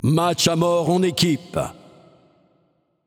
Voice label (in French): Mode Team Deathmatch, French; paladins